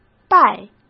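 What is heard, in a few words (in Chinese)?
"败", Mandarin; chinese-flashcards; hsk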